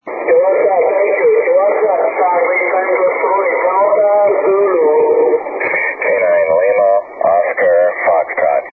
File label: 80m ssb; A very short CQ clip during the ARRL SSB contest; SSB-SP; A very short CQ clip during the ARRL SSB contest. Very good conditions this contest with many EU QS